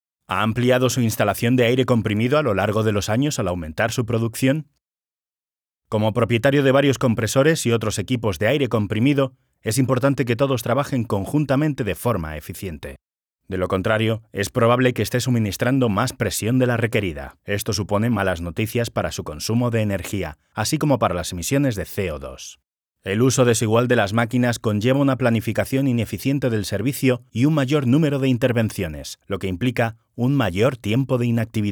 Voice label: Industrial VO